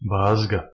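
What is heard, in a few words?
"bazga", Bosnian-Croatian-Montenegrin-Serbian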